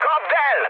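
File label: attack position, mood med, Hungarian; War Thunder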